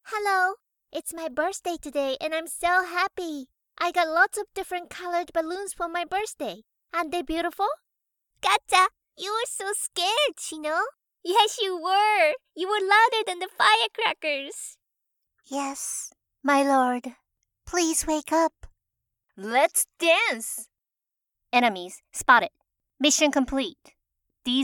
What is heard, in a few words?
anime video games voice-over ENG